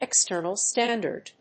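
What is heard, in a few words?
"external standard", English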